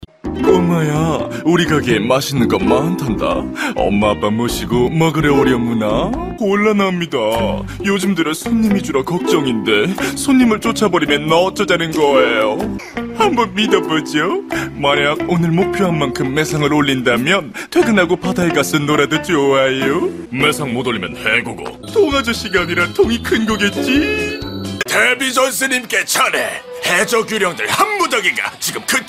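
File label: Korean male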